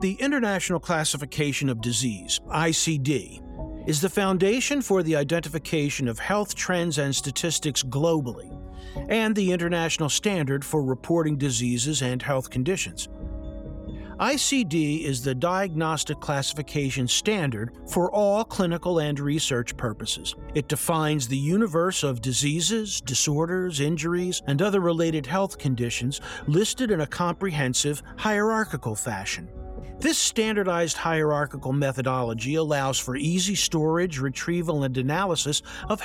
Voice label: Medical Narration; ICD